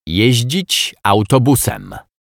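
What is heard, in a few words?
"jezdzic autobusem", Polish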